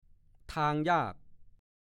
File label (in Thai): "ทางยาก", ภาษาโคราช; ถนนหนทางไม่สะดวก